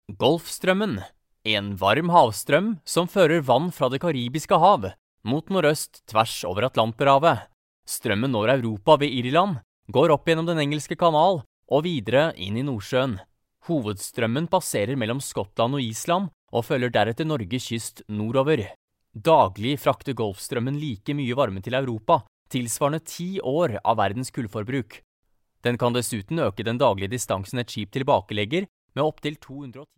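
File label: Norwegian, male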